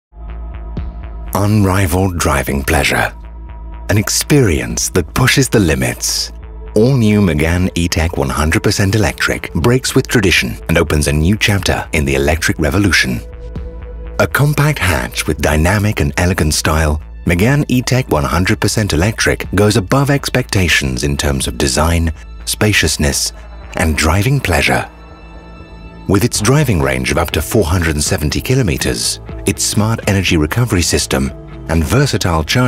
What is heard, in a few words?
Male 30 40 years Medium, english international